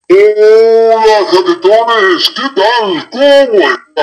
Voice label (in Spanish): hola ultra grave; jaquetones